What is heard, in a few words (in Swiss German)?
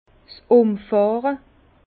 "umfahre", Bas Rhin; vin